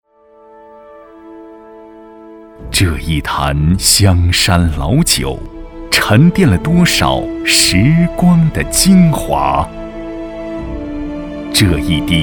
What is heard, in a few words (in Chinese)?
男; 湘山酒